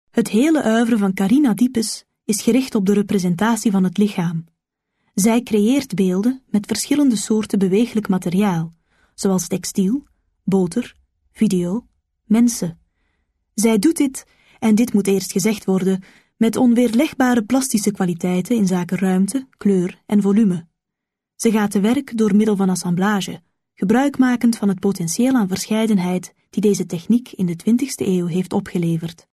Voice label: Flemish corporate; voice over